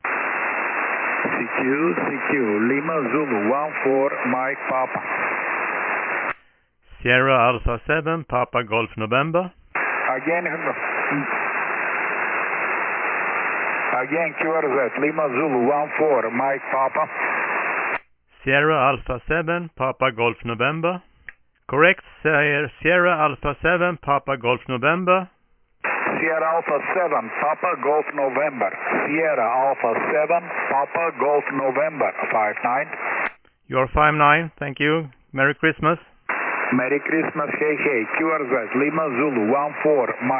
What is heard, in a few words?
First QSO